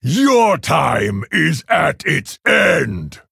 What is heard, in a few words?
Male Orc Threaten